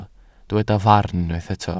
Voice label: "farn", welsh

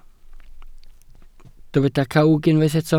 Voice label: "cawg", Welsh

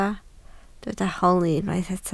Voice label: "holi", Welsh

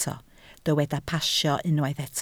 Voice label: "pasio", Welsh

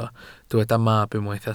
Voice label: "mab", Welsh

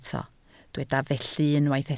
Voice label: "felly", Welsh

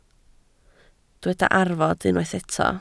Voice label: "arfod", Welsh

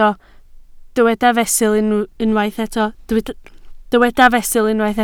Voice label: "fesul", Welsh

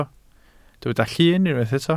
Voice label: "llun", Welsh

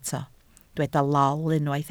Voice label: "lol", Welsh

